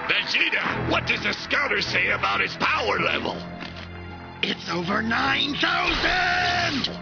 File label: over 9000, taunt